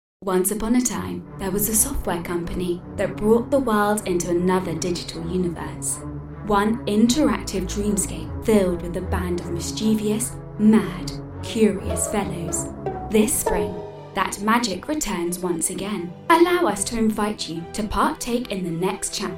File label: Theatre Radio Spot Uk